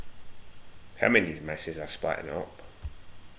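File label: "missus", slang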